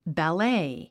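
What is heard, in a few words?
"ballet", English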